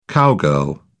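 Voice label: "cowgirl", British English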